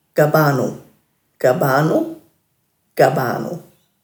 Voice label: "gabaanu", Dhurga

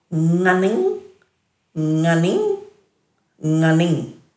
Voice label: "nganing", Dhurga; neck

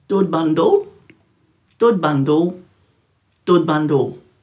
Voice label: "dhudbandhul", Dhurga

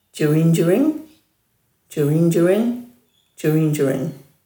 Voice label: "djirindjiring", Dhurga

